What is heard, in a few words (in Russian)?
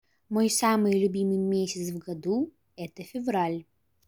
февраль, русский